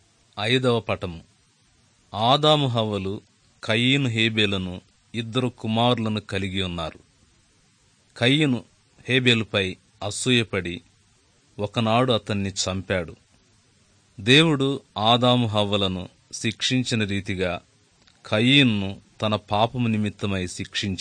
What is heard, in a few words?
good news telugu